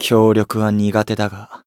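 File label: Voice limit break, Japanese; burino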